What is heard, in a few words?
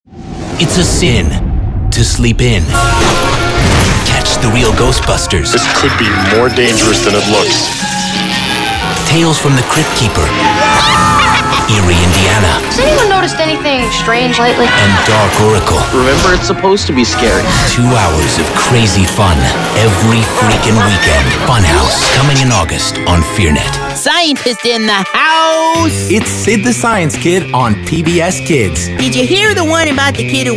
Promo